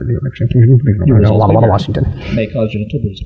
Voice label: nowbewawa walla washington may cause genital bruising, gibbrish; Voice